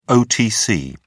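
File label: "otc", British English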